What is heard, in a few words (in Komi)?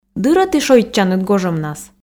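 дыр-ӧ ті шойччанныд гожӧмнас, Komi, female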